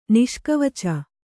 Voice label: "ನಿಷ್ಕವಚ", Kannada

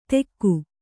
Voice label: "ತೆಕ್ಕು", Kannada

